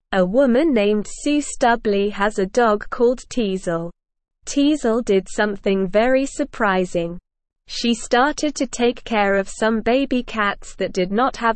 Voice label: Lower Intermediate SLOW Reading, English; Teasel the Dog Takes Care of Baby Cats; English Newsroom